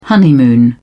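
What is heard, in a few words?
"honeymoon", British English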